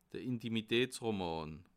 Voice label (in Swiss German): "intimitatsroman", Alsace